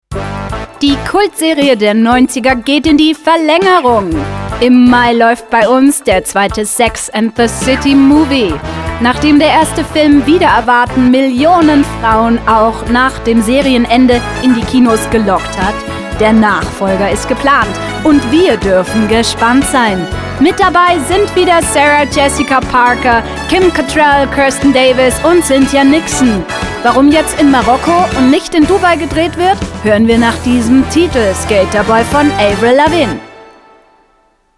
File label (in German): Plaudern